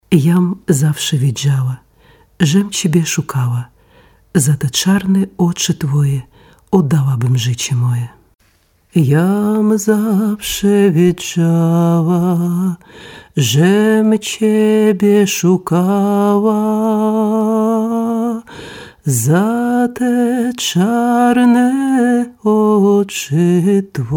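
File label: Polish language voice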